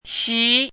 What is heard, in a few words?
"xí", hanzi